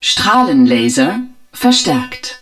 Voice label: HUD beam laser augmented, german

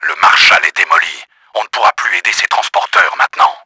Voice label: ANNOYED, french; KRAMER; Battle Engine Aquila; XBOX

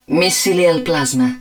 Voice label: hud flux missile, Italian; XBOX; Battle Engine Aquila

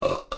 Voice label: man, tavern; Pirates Of The Caribbean; AMBIENT

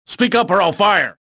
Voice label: suspicious; rebel

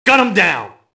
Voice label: mobster alert group; enemy; Max Payne

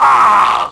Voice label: fall, negative; Splashdown; XBOX

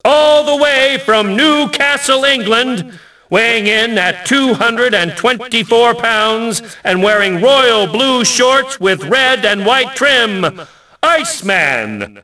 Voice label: announce, ENGLISH; Rocky; XBOX

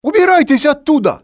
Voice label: get away, male; XBOX; civ

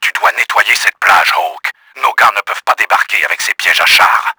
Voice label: CLEAR BEACH, french; Battle Engine Aquila; XBOX

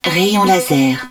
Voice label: hud beam laser, french

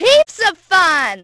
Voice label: heaps, Brit; Splashdown; XBOX

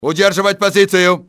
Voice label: hold position, russian soldier; enemy; XBOX

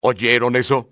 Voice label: did you hear, spanish soldier; XBOX

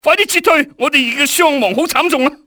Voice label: man down, enemy cantonese male; XBOX

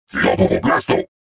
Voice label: suspicious

